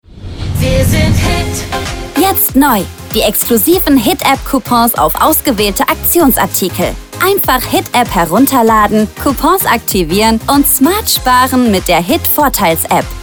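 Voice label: german announcements, female; german voice actors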